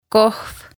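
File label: "Kohv", Estonian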